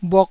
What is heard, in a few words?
"bhuk1", taiwanese Syllables